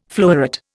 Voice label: "floweret", Indian

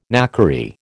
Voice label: "knackery", American

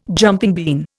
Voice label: "jumping bean", Indian

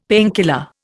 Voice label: "painkiller", Indian; Dictionary

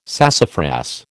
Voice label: "sassafras", American

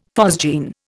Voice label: "phosgene", Indian; Dictionary

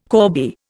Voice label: "corbie", Indian

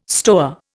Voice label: "stoa", Indian

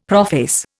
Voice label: "prophase", Indian; Dictionary

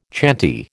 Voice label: "chanty", American

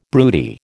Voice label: "broody", American

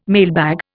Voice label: "mailbag", Indian

